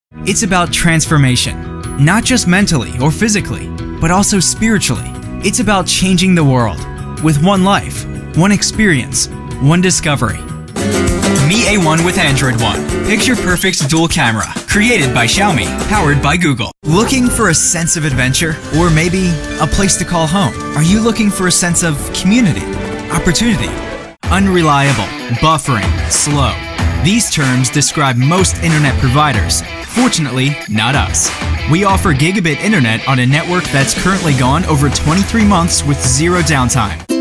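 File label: English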